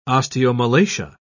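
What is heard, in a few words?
"osteomalacia", British English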